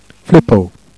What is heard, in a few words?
"phlippot", Jèrriais